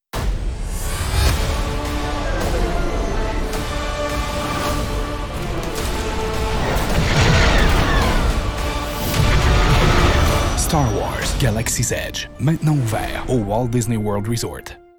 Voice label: french canadian movie trailers